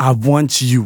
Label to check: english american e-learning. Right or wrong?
Wrong